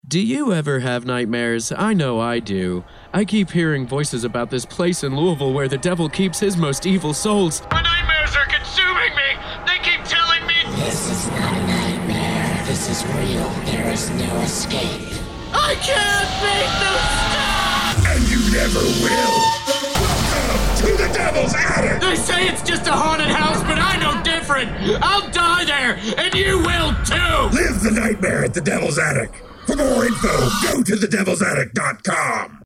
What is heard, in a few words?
Radio Commercial; The Devils Attic